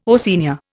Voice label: "Oceania", Indian